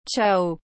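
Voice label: "Ciao", French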